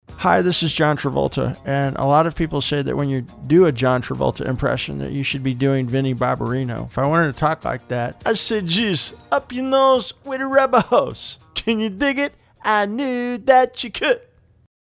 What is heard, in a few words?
impression; John Travolta